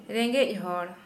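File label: Santali female poor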